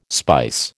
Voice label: "spice", American